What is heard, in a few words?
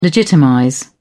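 "legitimize", British English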